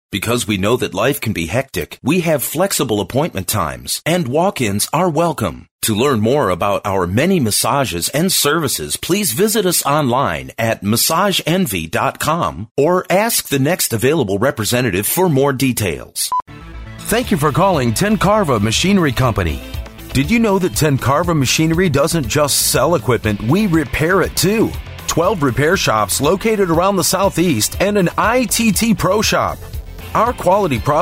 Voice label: IVR ON HOLD VO